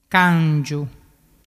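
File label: "cangiu", Vernazzese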